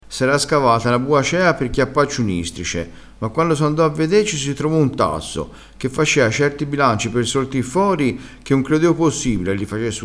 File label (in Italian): "bua cea", crespinese